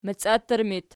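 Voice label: "maciattur" locative, Archi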